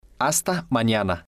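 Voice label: "hasta manana", Spanish, male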